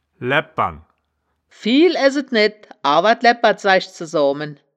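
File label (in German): "laebpern", Wittlicher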